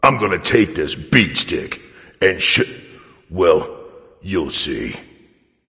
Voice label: taunt, voice; smite; voicepack; tyr

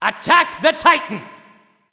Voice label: vox attack m; smite; ra; voicepack

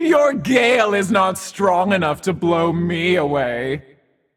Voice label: taunt directed; smite; izanami; kukulkan; spades

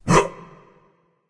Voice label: grunt; smite; hera; argus

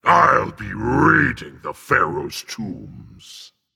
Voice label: taunt directed dragon; fafnir; anubis

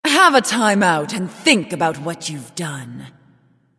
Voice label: taunt directed nemesis; smite; nox

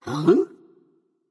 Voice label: confused; smite; janus

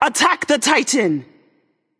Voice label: vox attack m; smite; yemoja

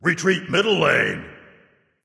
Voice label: vox retreat; smite; xingtian

